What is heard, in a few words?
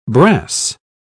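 "brass", English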